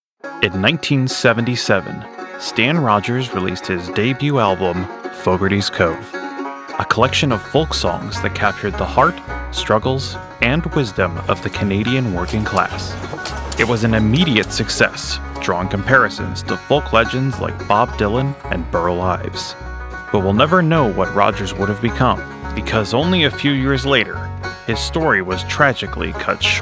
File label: narration